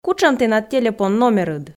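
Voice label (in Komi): кутшӧм тэнад телефон номерыд, Komi, female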